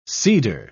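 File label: "seeder", British English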